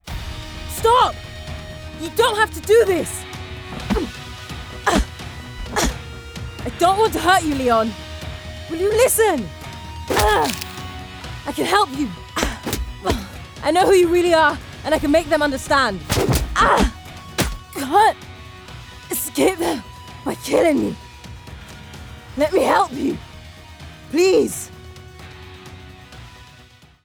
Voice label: Video Game Fighter